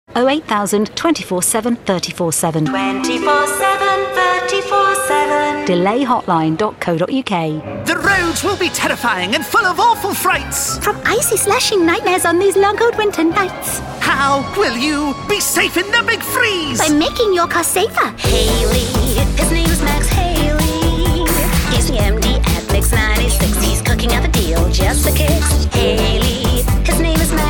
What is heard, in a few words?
JINGLES